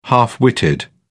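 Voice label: "half-witted", British English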